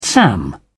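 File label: "sam", British English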